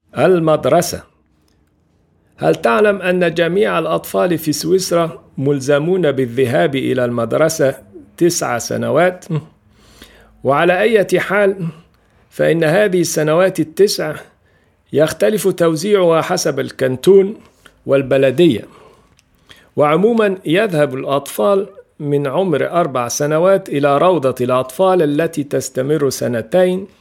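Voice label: Arabic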